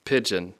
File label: "pigeon", English; Speech Pro